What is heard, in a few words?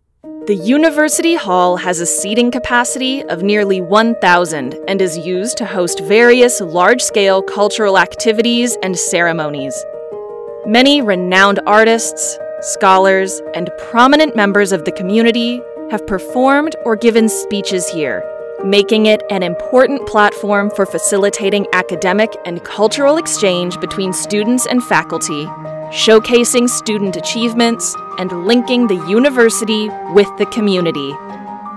English; hall; Inglês; Biblioteca Wu Yee Sun da UM (E2)